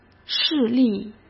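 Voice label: "视力", Mandarin Chinese; hsk; chinese flashcards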